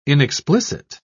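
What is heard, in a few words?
"inexplicit", British English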